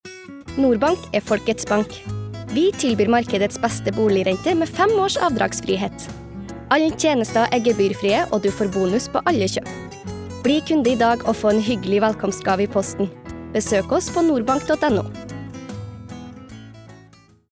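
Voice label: Norwegian commercial dialekt trondersk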